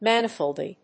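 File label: "manifoldly", English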